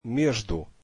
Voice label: russian between

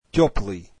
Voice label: russian warm

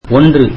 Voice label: tamil one

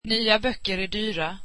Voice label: swedish new books are expensive

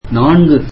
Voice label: tamil four

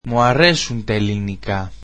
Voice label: greek I like Greek

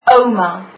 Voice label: grandmother, afrikaans

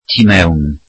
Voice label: welsh inside

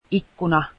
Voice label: window, finnish

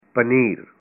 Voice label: "cheese", punjabi

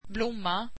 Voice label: swedish flower

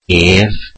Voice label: welsh him

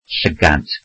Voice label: welsh eye

